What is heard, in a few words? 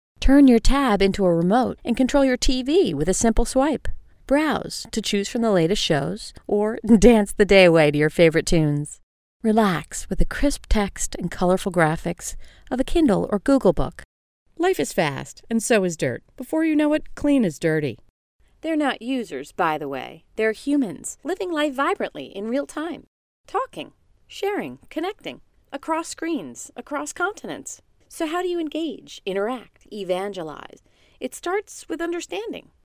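English American, female, voice over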